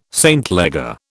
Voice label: "St Leger", British

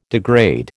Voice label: "degrade", American

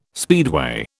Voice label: "speedway", British

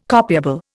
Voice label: "copiable", Indian

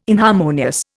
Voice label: "inharmonious", Indian

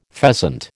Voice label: "pheasant", American

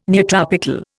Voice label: "neotropical", Indian

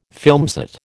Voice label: "filmset", American

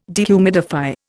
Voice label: "dehumidify", Indian

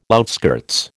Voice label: "outskirts", American

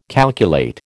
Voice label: "calculate", American

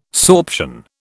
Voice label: "sorption", British

